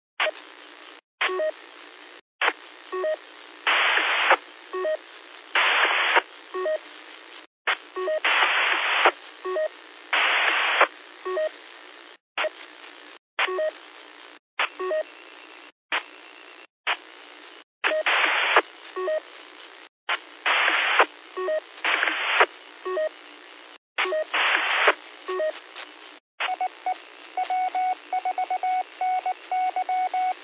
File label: qso rec simplex logic